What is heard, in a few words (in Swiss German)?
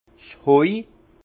"spoij", Alsace; foin; vin